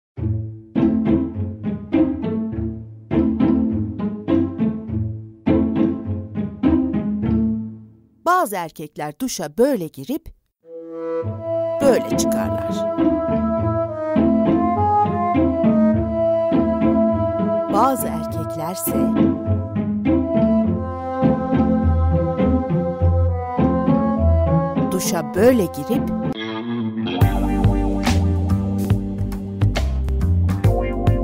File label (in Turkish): KADIN